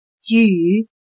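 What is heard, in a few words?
"举" Chinese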